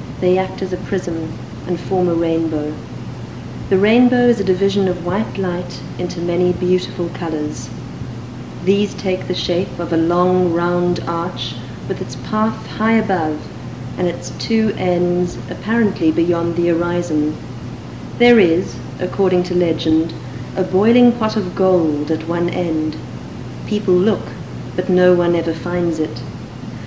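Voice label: south africa, dialects of English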